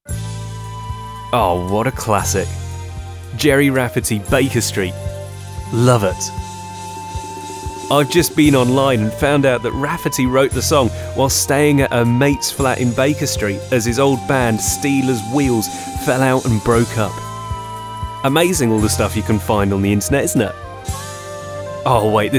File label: advert